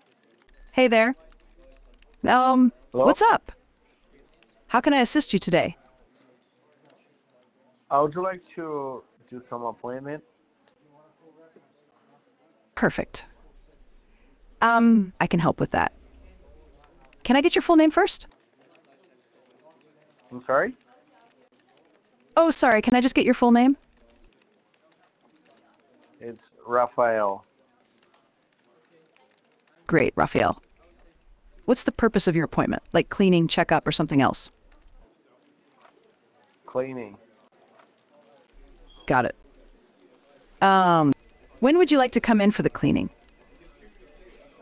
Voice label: Dental Clinic Call Example; ai-agents